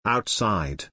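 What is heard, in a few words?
"outside", English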